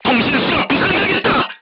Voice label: voice message machine gunner wounded, korean; crew tanks